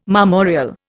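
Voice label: "marmoreal", Indian English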